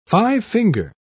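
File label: "five-finger", British English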